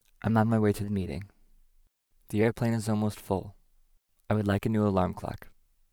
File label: long happy